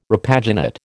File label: "repaginate", American